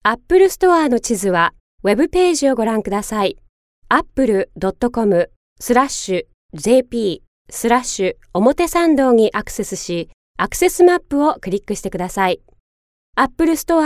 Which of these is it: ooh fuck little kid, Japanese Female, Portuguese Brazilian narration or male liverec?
Japanese Female